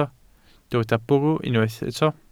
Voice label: "bwrw", Welsh